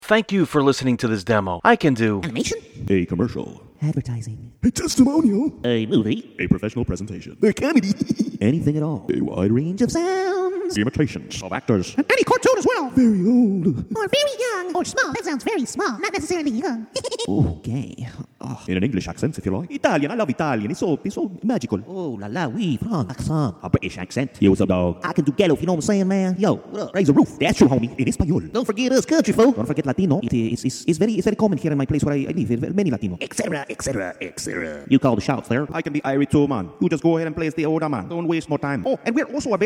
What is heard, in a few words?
voice over